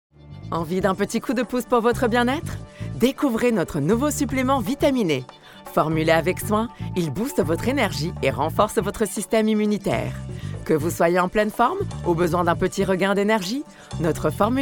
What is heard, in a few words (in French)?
voix pubs